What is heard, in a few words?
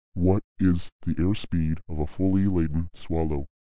low voice giant